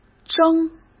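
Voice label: "争", Mandarin Chinese; chinese-flashcards; hsk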